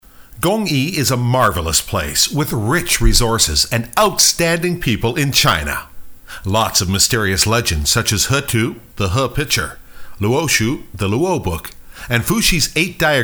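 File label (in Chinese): 外籍男英_外语_美式英语_样音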